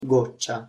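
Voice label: "goccia", Italian pronunciation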